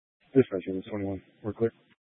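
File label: Fire Dispatch, scanner audio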